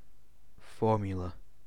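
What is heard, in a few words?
"formula", British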